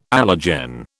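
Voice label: "allergen", British English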